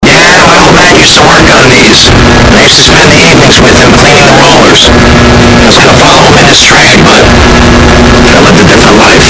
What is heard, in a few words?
voice super loud; gta vice city; tommy vercettis